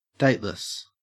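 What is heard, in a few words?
"dateless", Australian English; pronunciations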